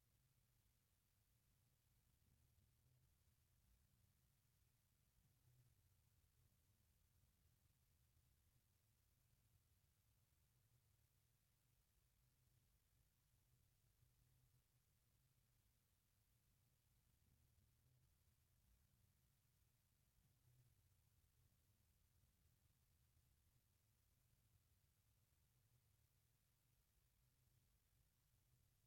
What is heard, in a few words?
spanish directions part b